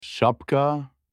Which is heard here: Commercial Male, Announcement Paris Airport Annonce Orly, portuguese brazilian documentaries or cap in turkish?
cap in turkish